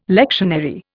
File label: "lectionary", Indian